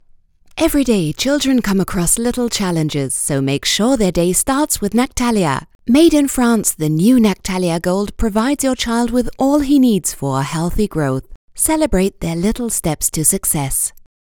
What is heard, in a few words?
commercial